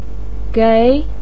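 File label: "gei" second tone, Mandarin Chinese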